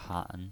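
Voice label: "han", Mandarin Chinese, third tone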